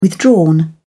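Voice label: "withdrawn", English, British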